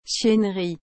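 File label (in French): "chiennerie", French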